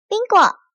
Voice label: "Bingo", zh; 人聲